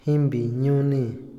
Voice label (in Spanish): ayunar, Otomí, hombre; Religión; Vocabulary; INALI